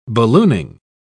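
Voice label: "ballooning", British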